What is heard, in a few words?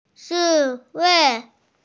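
"ci4wei5", Mandarin Chinese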